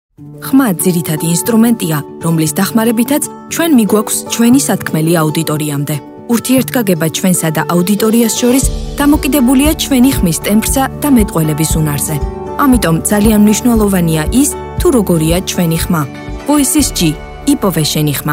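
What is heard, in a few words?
German, female, documentary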